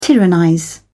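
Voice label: "tyrannize", British English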